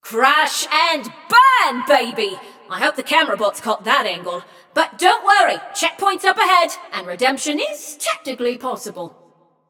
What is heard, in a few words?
Scrap Run Go To Checkpoint, Announcer; Flux